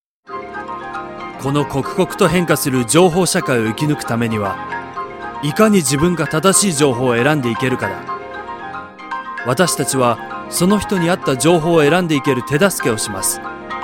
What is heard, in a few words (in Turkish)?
Japonca Erkek; seslendirme